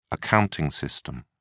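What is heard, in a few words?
"accounting system", British English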